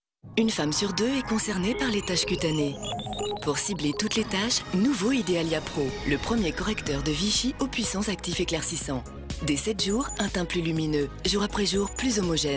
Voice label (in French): pub tv vichy idealia pro ton naturel classe; Pubs TV; Type de voix : Sérieuse, Classe, Naturelle